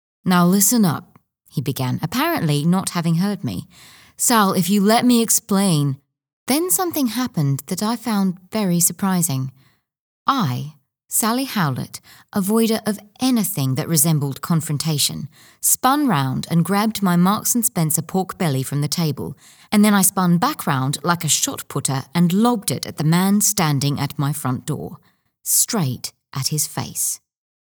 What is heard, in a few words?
UK London accent chick lit